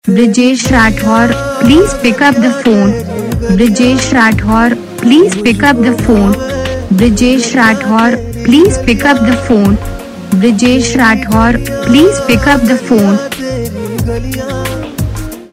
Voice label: pick up phone saying, Ringtones